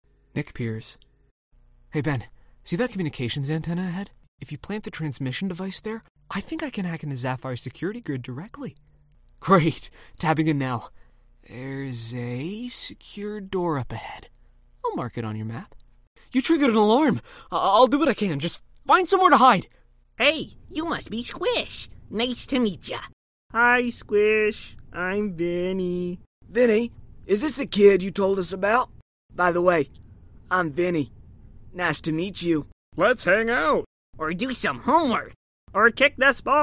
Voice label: Animation Voice Over